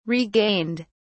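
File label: "regained", British English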